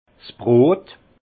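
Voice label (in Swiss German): "brot", Alsace Haut Rhin; vin; pain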